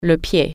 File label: foot, french